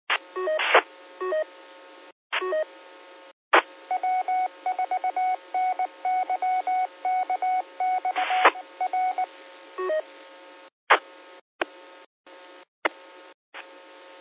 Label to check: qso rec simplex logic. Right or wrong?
Right